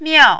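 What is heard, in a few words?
"miao", Mandarin, fourth tone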